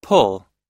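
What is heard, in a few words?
"pull", English pronunciation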